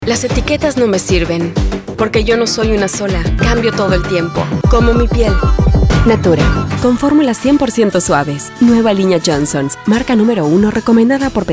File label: Commercial Neutral Spanish